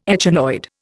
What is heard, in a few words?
"echinoid", Indian